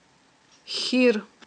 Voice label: "хьир", aghul

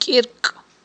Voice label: "кIиркI", aghul

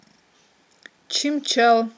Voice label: "чимчал", aghul

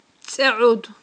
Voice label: "цIеIуд", aghul

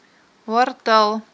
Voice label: "варттал", Aghul

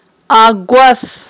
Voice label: "агвас", Aghul

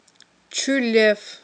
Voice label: "чуьлеф", Aghul

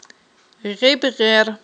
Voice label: "гъибгъер", Aghul

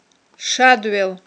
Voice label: "шадвел", aghul

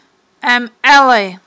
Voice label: "Iам-Iалай", aghul

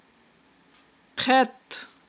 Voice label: "хъетт", Aghul

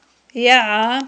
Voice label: "ягIа", aghul

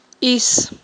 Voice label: "ис", aghul

